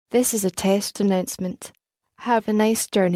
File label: Test Announcement